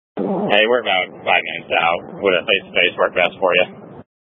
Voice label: Incident Tac 1, Scanner audio recording

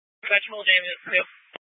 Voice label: Fire Dispatch

